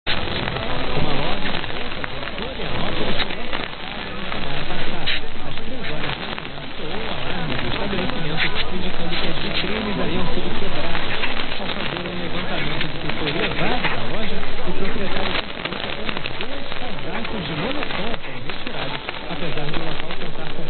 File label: Radio Globo Brazil